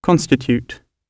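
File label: "constitute", British English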